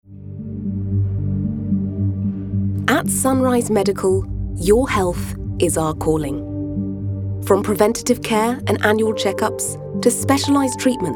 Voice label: UK English; Sunrise Medical